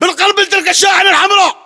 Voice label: landmark near vanred, localized english battlechatter